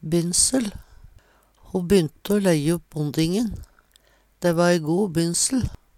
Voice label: "bynsel", Numedalsmål; Eit utelljeleg substantiv.

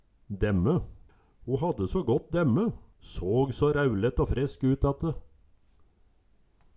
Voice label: "demme", Numedalsmål

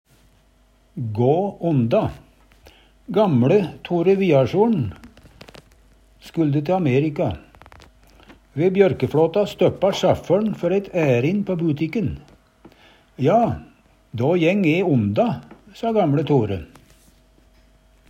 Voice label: ga onda, Numedalsmål

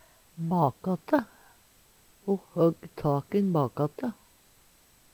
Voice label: "bakate", Numedalsmål; Ho høgg tak i`n bakate.

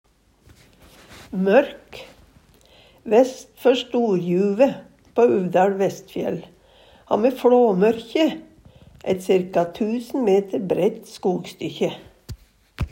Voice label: mork, Numedalsmål

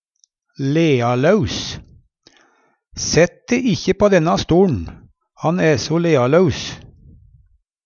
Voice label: "lealaus", Numedalsmål; Adjektiv

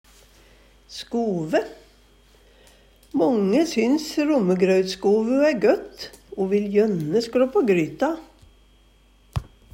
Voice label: "skove", Numedalsmål; Substantiv hokjønn; Hushald, mat, drikke

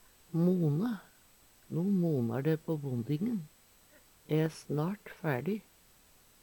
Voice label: "mone", Numedalsmål; Verb; Veggli

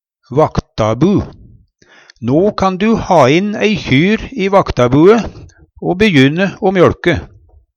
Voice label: vaktabu, Numedalsmål; Eit anna ord for vaktabu er sjobu (kokehus).

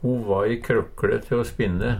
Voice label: krokkle, Numedalsmål; Substantiv hokjønn

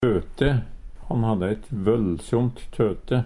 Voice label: "tøte", Numedalsmål

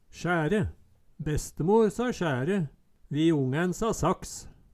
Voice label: "sjære", Numedalsmål; Eksempel på bruk Bestemor sa sjære, vi ongæn sa saks

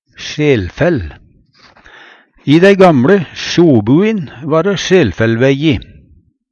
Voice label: "sjelfell", Numedalsmål; I dei gamLe sjobuin/vaktarbuin va dæ sjelfellvæjji.

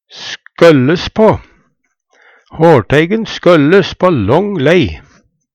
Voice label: skølles, Numedalsmål; Hårteigen skølles på long lei.

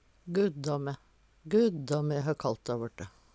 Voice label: guddame, Numedalsmål; huttetu; Interjeksjon

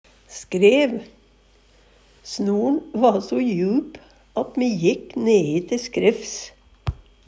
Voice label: "skrev", Numedalsmål; Substantiv inkjekjønn

